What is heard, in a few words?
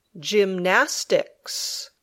"gymnastics", English